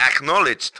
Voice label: ackno ru

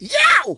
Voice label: Tnt guy die

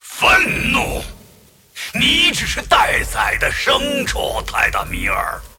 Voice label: move, zh

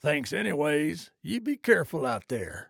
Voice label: trader male like sale declined

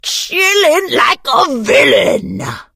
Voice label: evil start vo

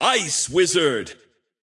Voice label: Ice Wizard normal

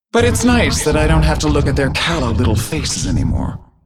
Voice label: Hero female demon hunter morphed pissed

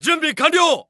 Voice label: Jp Idle

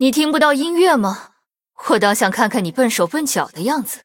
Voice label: taunt, zh; Irelia

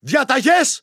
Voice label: neutral

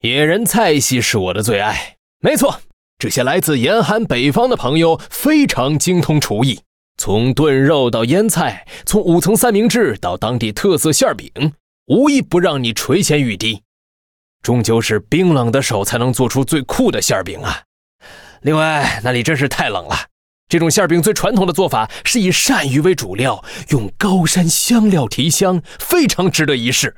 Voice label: Mandarin; 开场白

